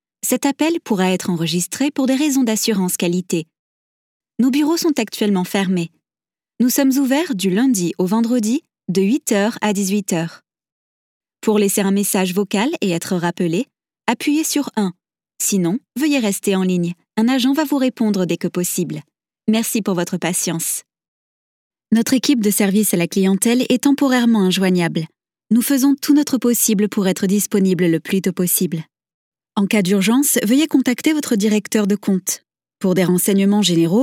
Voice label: IVR Voice